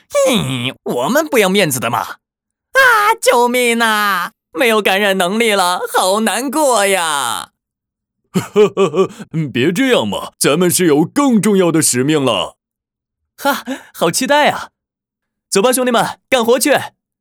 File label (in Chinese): 防疫卡通科普疫苗病毒等角色